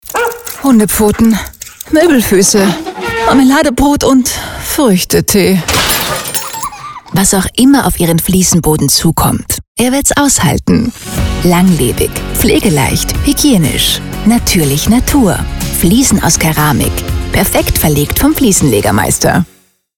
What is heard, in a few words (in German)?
Radiospot; Engergie sparen –genau mein Style